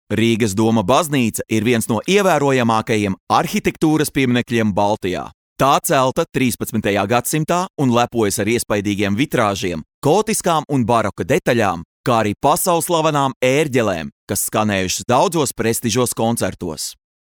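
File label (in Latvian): Infografika Latvian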